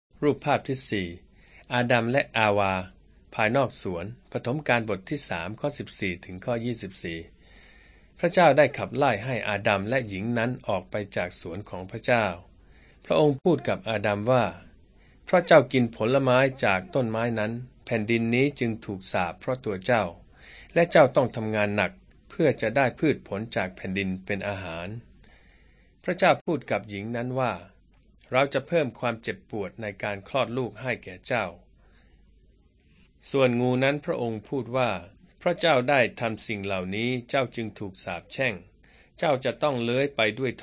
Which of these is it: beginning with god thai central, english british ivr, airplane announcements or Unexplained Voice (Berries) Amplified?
beginning with god thai central